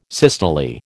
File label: "systole", American